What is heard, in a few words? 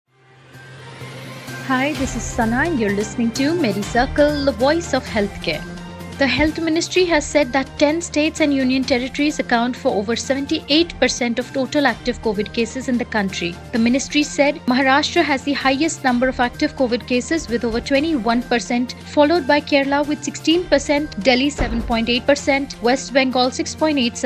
english news